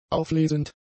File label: "auflesend", German; adjectives